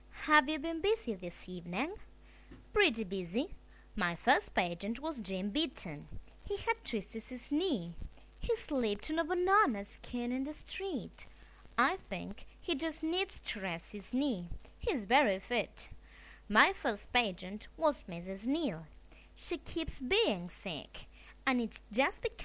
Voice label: conversation between two doctors

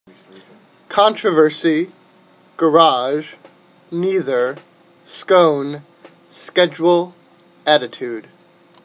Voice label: Indiana male

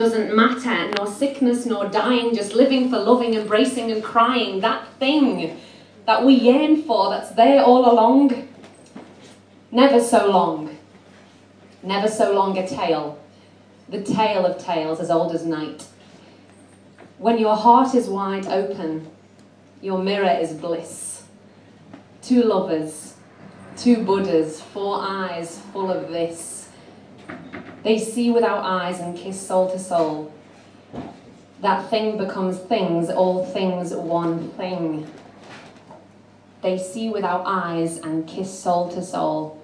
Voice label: scouse buddhist poetry; highgate

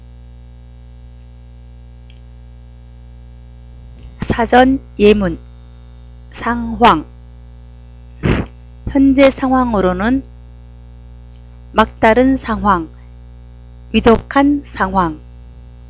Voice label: korean sample sentences

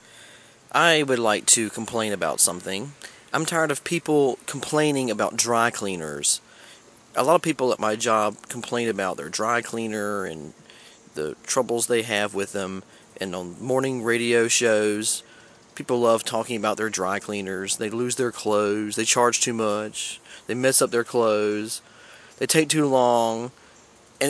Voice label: dry cleaning rant

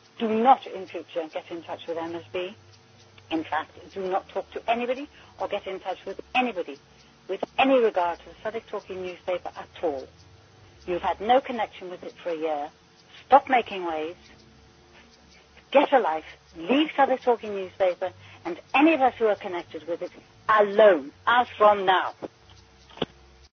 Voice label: voicemail message

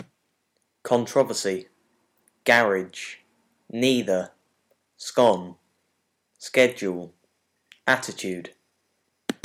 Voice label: little dunmow england male

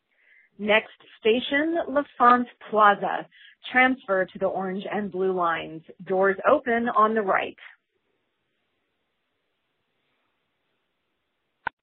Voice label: metro announcement slow and steady

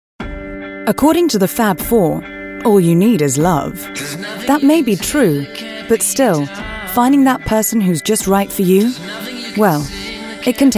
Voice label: advert

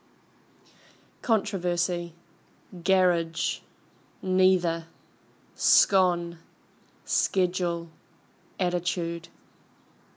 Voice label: Helensville NZ female

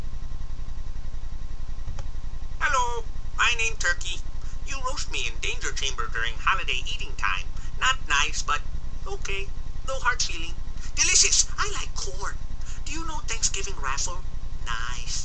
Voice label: radio commercial cute and funny; oregon lottery

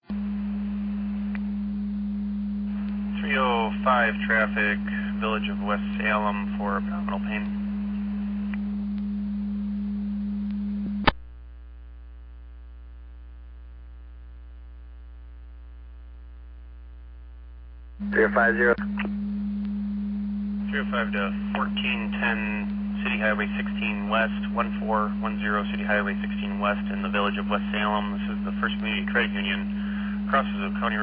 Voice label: tsa alert page

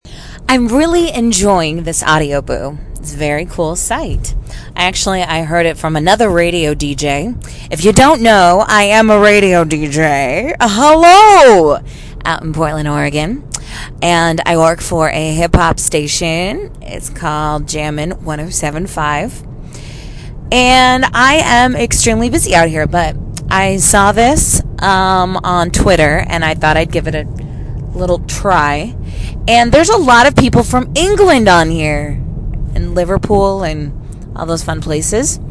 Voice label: trying my best english accent

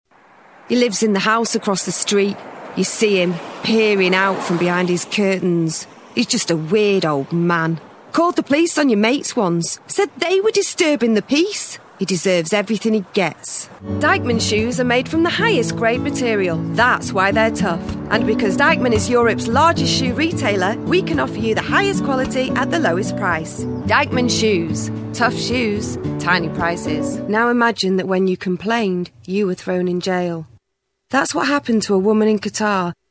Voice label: commercial audio

